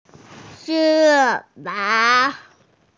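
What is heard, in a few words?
"si1fa3", Mandarin Chinese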